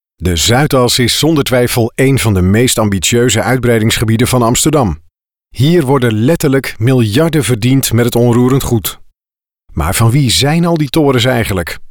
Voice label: TV INFORMATIE

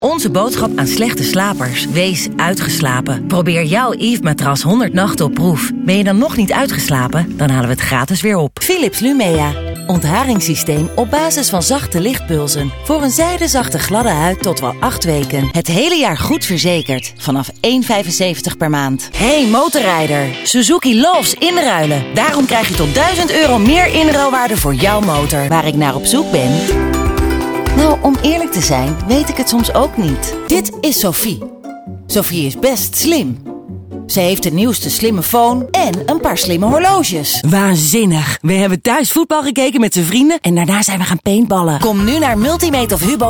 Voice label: Commercial

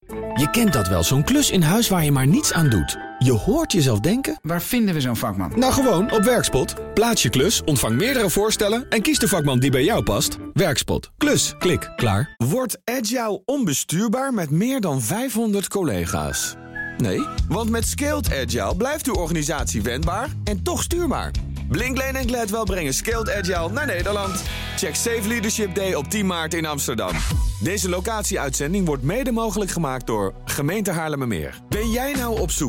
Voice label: Commercials

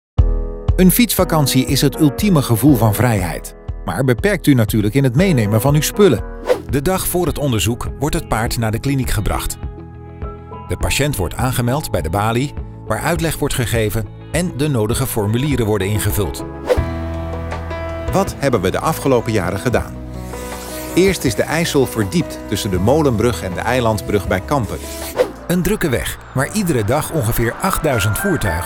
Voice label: corporate

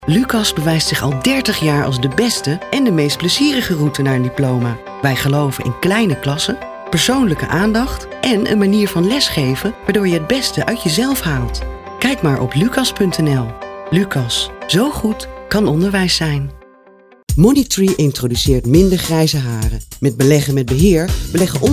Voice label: Voice Over

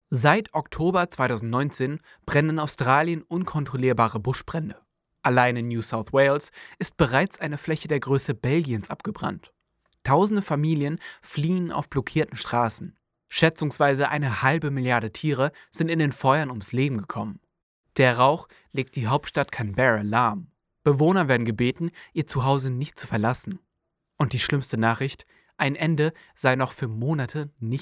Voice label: Buschbrände Australien German

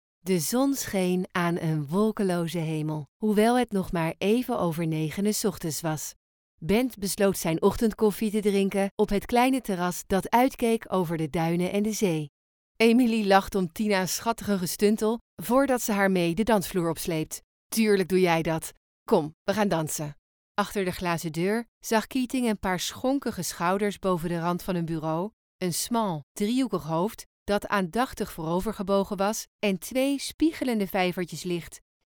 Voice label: Audiobook

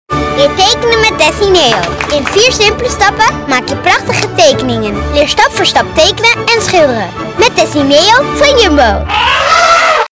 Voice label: TV Commercial Dutch; Dessineo by Jumbo

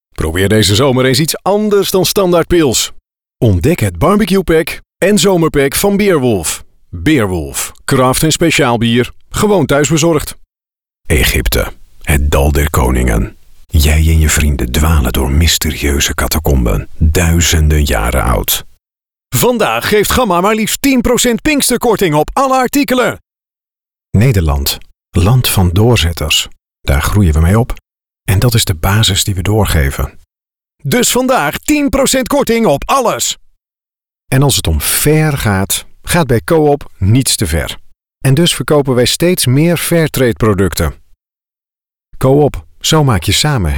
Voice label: commercials

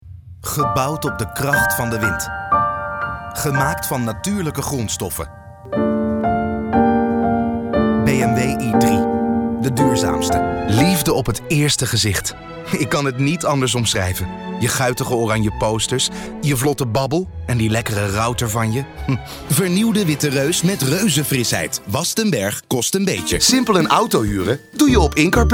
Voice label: commercials

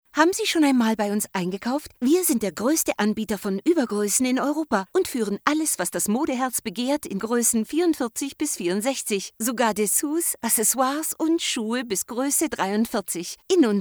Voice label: GER Commercial

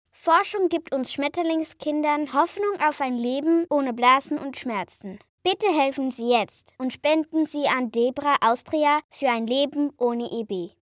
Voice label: Commercial German; DB

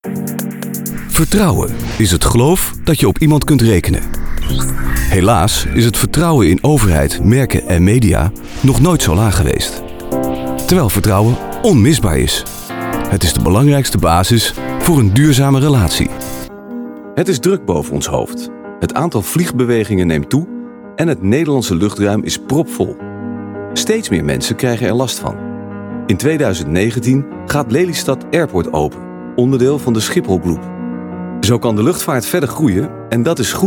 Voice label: narative

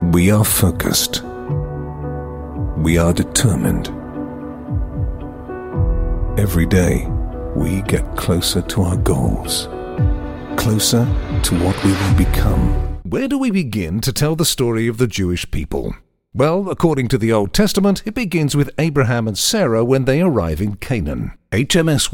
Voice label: Generic Showreel

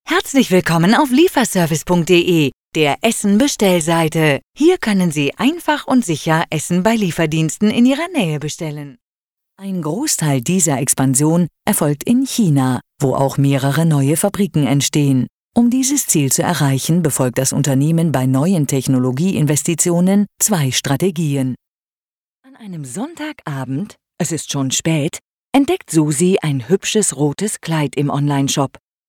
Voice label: GER

